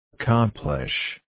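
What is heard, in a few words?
"accomplish", USA, male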